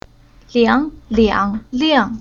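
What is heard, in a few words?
"Liang", Chinese syllable; Уроки фонетического блока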